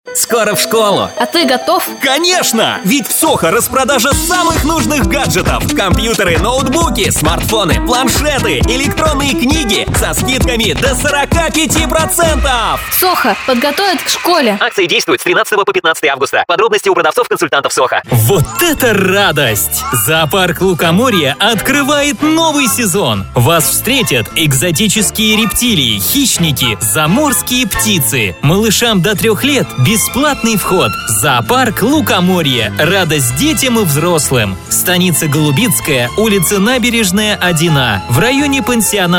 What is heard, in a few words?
Diktor; announcers